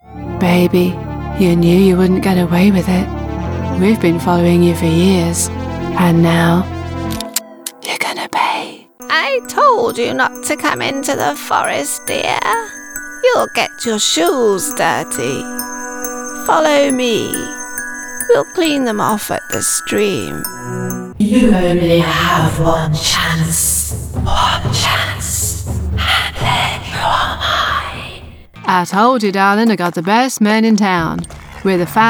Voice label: Videogame Voices